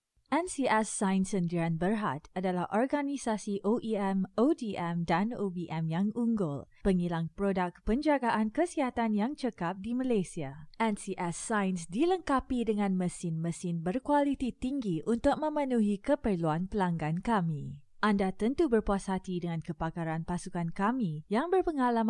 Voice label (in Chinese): 马来西亚语女, 外语, 小语种